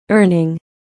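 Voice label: "earning", English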